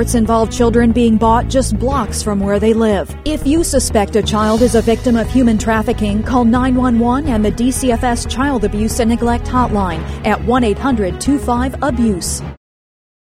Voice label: public service announcements; human trafficking; DCFS